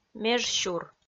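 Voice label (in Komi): "меж сюр", Komi, female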